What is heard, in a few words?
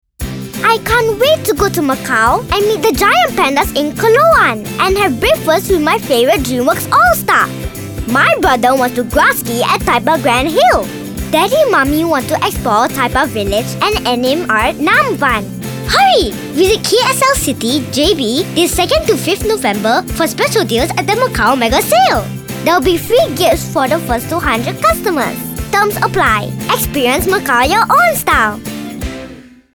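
English; MACAO TOURISM; EXPERIENCE MACAO MEGA SALE; JB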